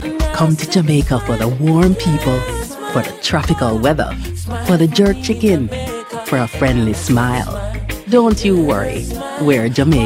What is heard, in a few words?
female English USA Jamaican accent compilation